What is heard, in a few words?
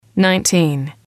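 "nineteen", English, female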